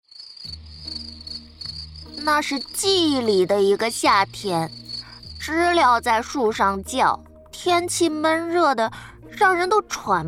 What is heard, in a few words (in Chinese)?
自述体绘本故事小正太